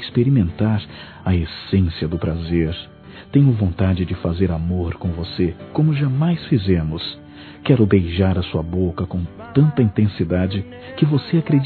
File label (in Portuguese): ANIV ESPOSA (M), Telemensagens Sensuais masc